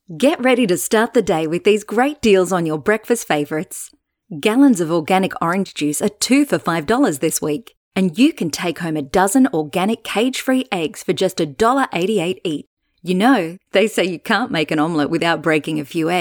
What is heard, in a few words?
Casual Conversational